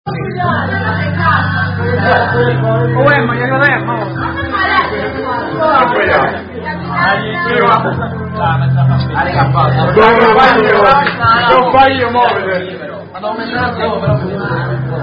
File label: Italian Capitan Pif Pif Drinking Game; Giochetto Divertente; Italian Capitan Pif Pif (Are You A Cardinal Drinking Game)